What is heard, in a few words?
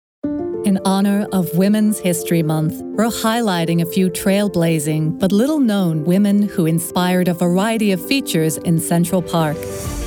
Narration